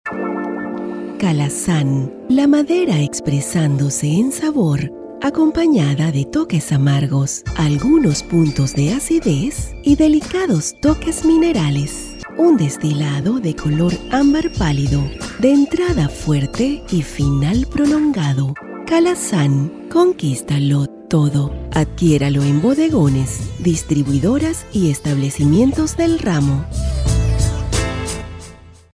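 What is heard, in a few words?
comercial, Venezuelan Spanish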